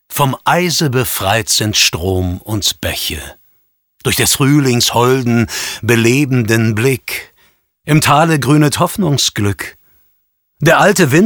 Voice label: VO Only Geputzte Menschen, Gedicht, German

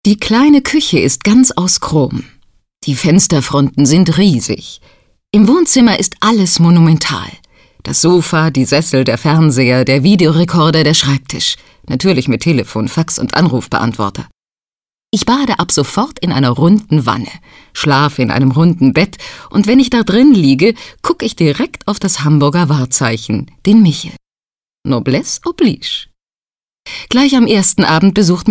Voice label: erzaehlung

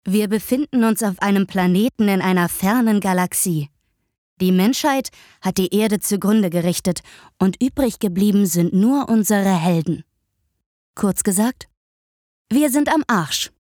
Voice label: Erzaehlerin

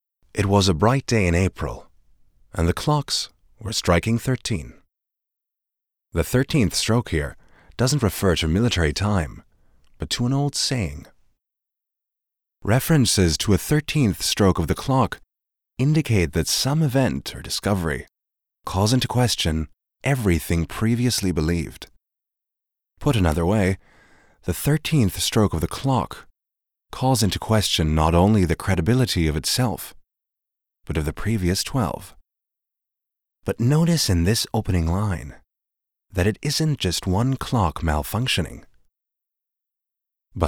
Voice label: englisch us narration dialekt midatlantic

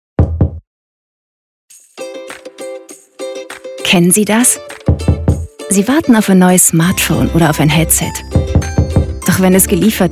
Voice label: Erklaerfilm; LOFT VOICES

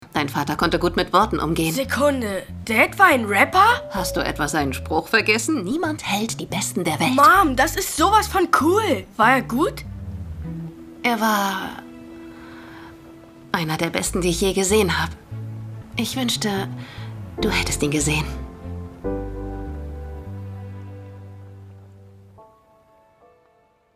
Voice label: Synchron Mutter; Der Beste Der Welt; Priya

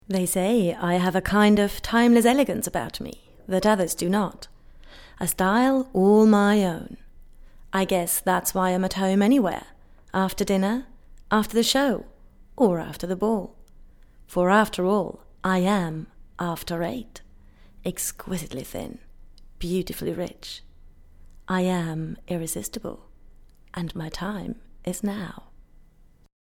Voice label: Werbung Englisch; AfterEight

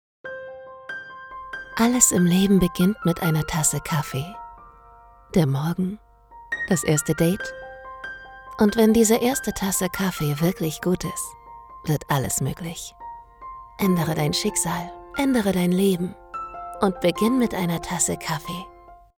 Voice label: Werbung Kaffee

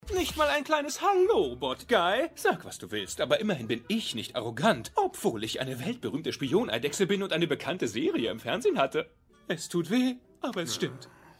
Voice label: Rolle Synchron Charge; LOFT VOICES

